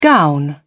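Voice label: "gown", English, British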